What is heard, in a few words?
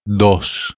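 "dos", Catalan, male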